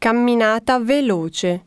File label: Italian walk fast; samsung YP-U5; Audio User Interface